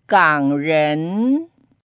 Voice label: "gang3ren2", pinyin